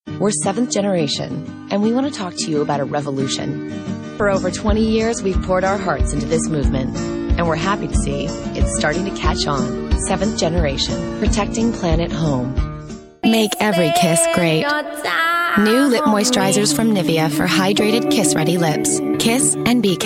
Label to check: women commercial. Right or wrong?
Right